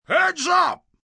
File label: Heads Up, male; Calls, caution; Yelling Sound Effects; Voice